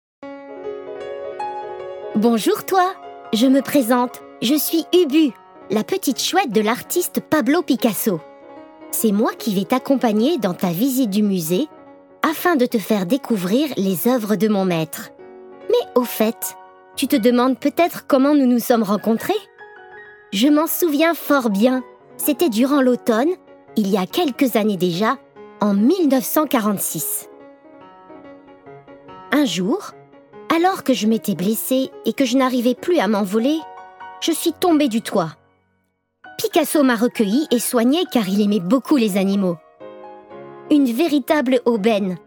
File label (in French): Audioguide parcours enfant; Musee Picasso